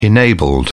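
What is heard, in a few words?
"enabled", British English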